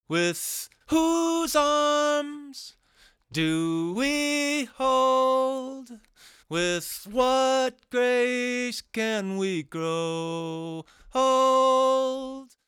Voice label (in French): voix avec EQ; infinistrip